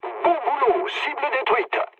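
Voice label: bomb success, mood low, French; War Thunder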